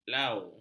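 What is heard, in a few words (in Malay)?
"lau", Malay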